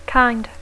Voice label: "kind", English

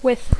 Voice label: "with", English

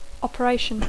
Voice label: "operation", English; operació; intervenció quirúrgica

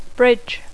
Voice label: "bridge", English; pont

